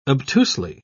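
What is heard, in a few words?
"obtusely", British English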